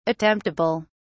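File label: "attemptable", English, British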